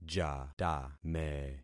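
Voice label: "jatame", concatenated syllables; Affixing experiment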